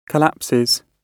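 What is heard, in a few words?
"collapses", British English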